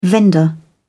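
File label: "vendor", British English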